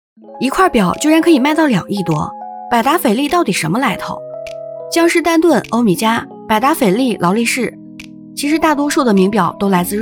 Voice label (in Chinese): 女音素人; 百达翡丽③